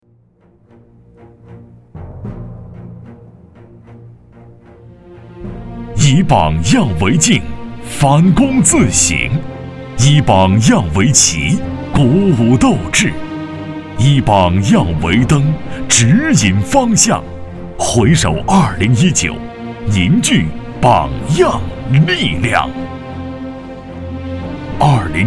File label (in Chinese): 年会激情; 年会青岛垦荒人